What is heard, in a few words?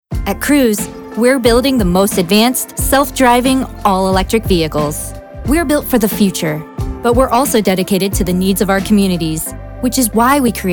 Bright Conversational